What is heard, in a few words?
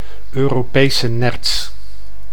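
"Europese nerts", Dutch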